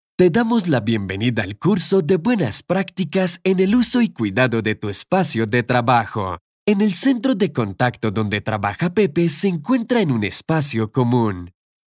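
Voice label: Male Spanish Latin American (Colombia)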